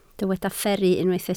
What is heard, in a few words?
"fferru", Welsh